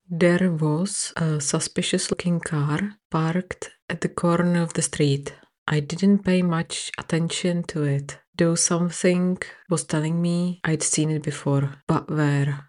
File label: Czech Accent; learning english intonation as a non native speaker